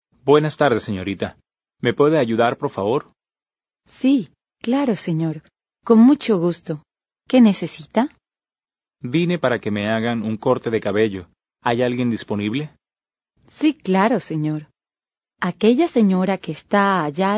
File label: Dialogue, Spanish